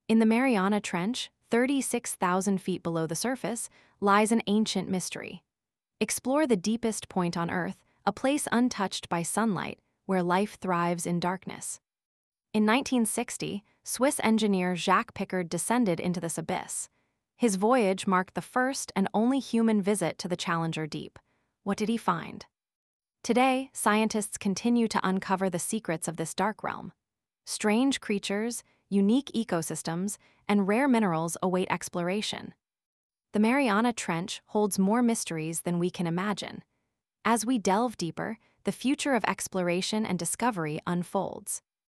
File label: voiceover en